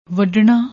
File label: "wadna", Punjabi; ਵੱਢਣਾ